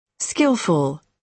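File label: "skilful", British English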